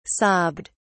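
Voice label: "sobbed", British English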